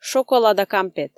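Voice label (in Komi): шоколада кампет, Komi, female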